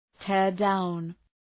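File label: "tear down", English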